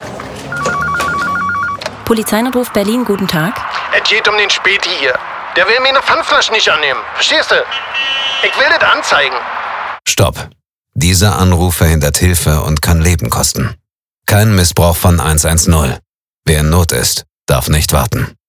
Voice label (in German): polizei funkspot